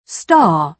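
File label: "star", British English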